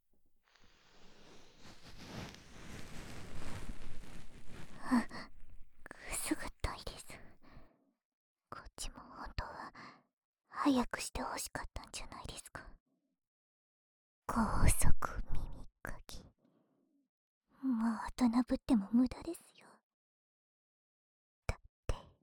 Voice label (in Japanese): 左 こしょこしょ囁きとおしゃべり